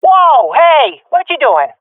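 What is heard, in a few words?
taking fire friendly, swat female; vo; Counter Strike Model Pack; CS:GO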